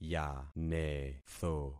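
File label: "yanetho", concatenated syllables; Affixing experiment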